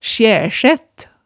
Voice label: "sjærsætt", hemsedalsmaalet

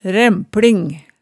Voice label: "ræmpling", Hemsedal

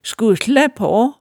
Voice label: "skuhslæ paa", hemsedalsmaalet

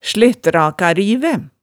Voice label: "slettrakarivæ", hemsedalsmaalet

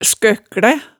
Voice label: "skøkklæ", hemsedalsmaalet

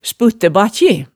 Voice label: "sputtæbakkji", hemsedalsmaalet

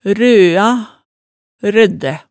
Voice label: "røa", Hemsedal

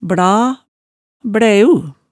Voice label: "bla, blæu", hemsedals maalet tale

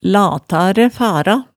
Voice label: "lata ræ fara", hemsedalsmaalet tale

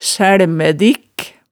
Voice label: "sælmædikk", hemsedalsmaalet

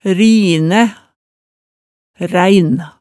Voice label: "rinæ", hemsedalsmaalet, tale

